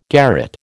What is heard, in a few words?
"garret", American